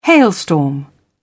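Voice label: "hailstorm", British English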